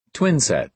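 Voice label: "twinset", American English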